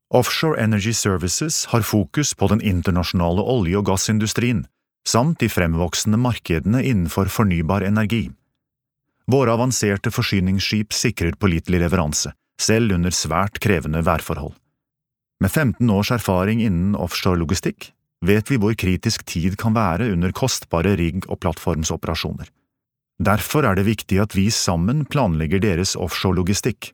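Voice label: Norwegian corporate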